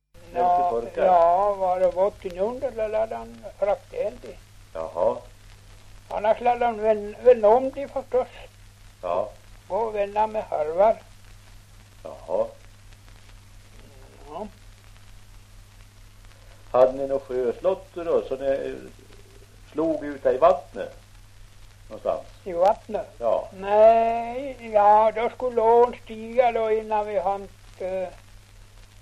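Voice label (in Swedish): Ovansjö Gästrikland, dialekter, svenska sagor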